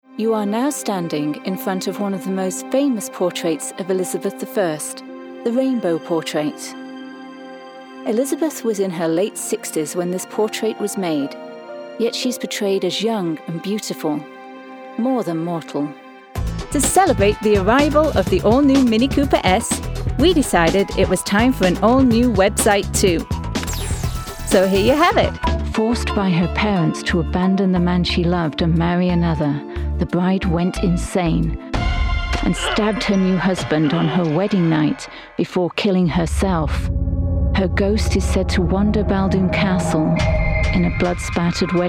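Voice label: Narration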